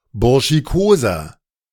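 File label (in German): "burschikoser", German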